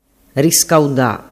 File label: "riscauda", dialettu